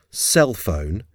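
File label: "cell phone", English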